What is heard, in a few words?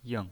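"ying" Mandarin Chinese pinyin voice, neutral tone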